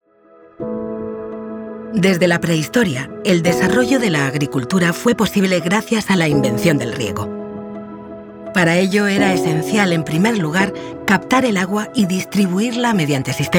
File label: spanish documentaries